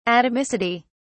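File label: "atomicity", English, uk British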